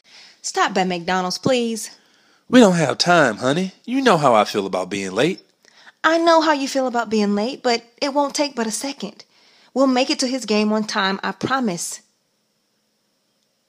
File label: Voiceover Commercial; McDonalds